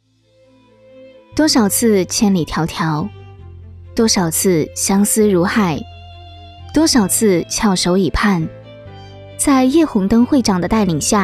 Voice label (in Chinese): 台湾腔宣传片; 台商子弟学校